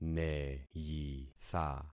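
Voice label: "neyitha", concatenated syllables; Affixing experiment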